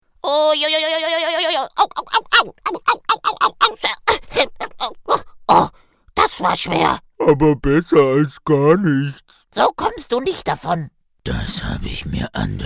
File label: german cartoon animation